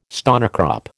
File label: "stonecrop", American